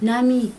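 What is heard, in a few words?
sun, female speaker, Chug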